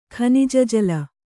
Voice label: "ಖನಿಜಜಲ", Kannada

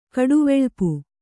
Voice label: "ಕಡುವೆಳ್ಪು", Kannada

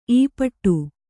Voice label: "ಈಪಾಟಿ", Kannada

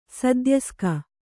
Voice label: "ಸದ್ಯಸ್ಕ", Kannada

